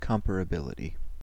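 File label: "comparability", US English; pronunciations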